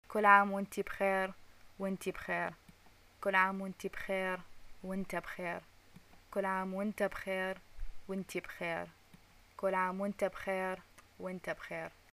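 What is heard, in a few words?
greeting happy birthday, Iraqi Arabic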